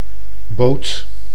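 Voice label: "boot", Dutch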